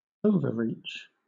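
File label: "overreach", British English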